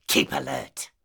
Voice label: Pirate Elite Male Patrol Line; NPC; Heist Thug Captain Patrol

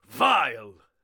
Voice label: Melee Miniboss Mid Combat Line

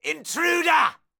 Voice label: Caster Elite Alert Line, dialogue; NPC; Heist Military Spotted

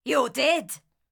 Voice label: Ranged Female Alert Line, Thug Spotted

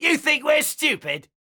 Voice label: Pirate Elite Male Alert Line; NPC; Heist Thug Spotted

